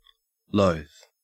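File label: "loath", Australian English; pronunciations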